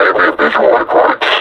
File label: announce enemy zombie, combine suppressor